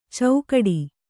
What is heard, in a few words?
"ಚೌಕಡಿ", Kannada